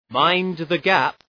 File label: "Mind the Gap", Male; D n B